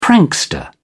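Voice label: "prankster", English, British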